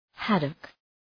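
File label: "haddock", English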